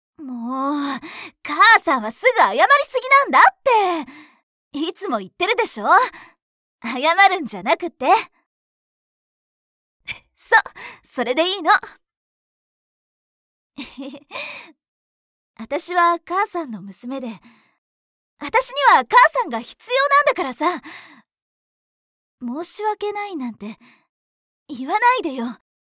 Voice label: cheerful